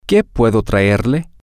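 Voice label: what can I bring you, Spanish, female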